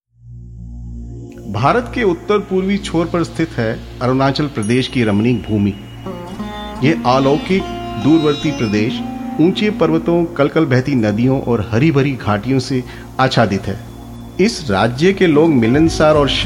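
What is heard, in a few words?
NARRATION DOCUMENTARY FOR CORPORATES HINDI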